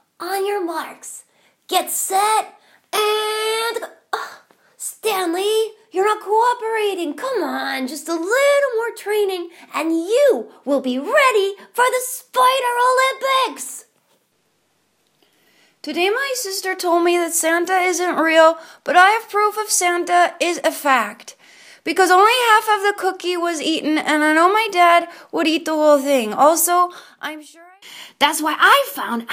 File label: Cartoon boy samples